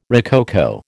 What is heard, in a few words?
"rococo", American